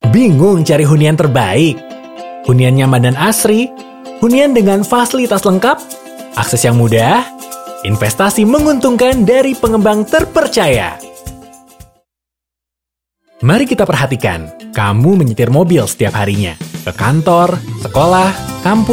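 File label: Indonesian casual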